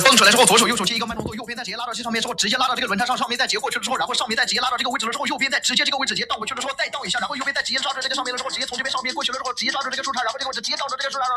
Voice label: fast; Qwen3-ASR